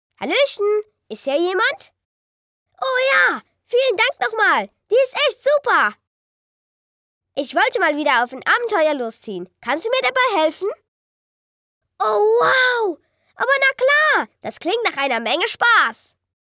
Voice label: youtube character German